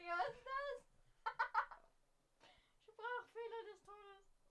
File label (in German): sprachfehler